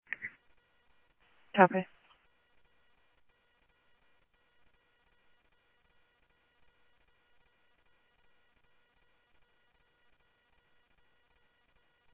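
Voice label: Mesa Police Department, scanner audio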